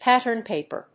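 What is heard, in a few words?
"pattern-paper", English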